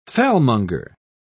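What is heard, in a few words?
"fellmonger", British English, dictionary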